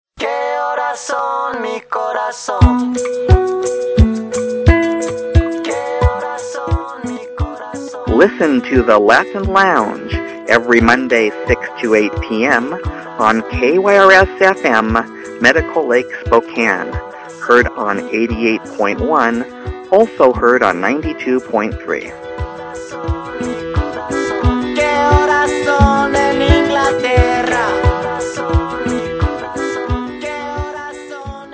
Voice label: PROMO; Latin Lounge